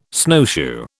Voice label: "snowshoe", British